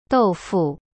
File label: "豆腐 dou fu", Chinese; cyberchinese